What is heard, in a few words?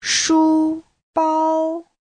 "shu1bao1", Chinese